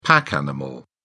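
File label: "pack animal", British English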